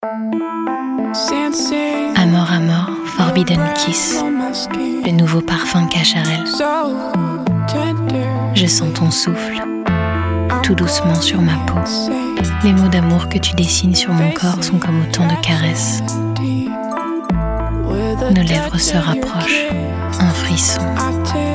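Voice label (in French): spot, spots radio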